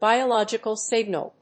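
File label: "BIOLOGICAL SIGNAL", English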